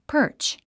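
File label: "perch", English word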